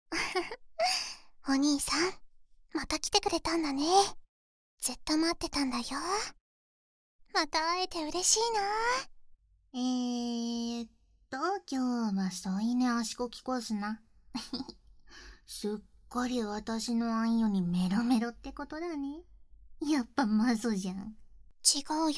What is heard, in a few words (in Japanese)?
添い寝足コキコース, ASMR